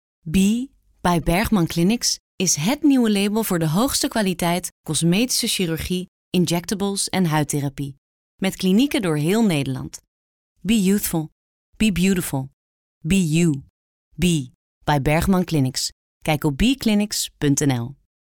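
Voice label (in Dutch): neutraal, stem voice-over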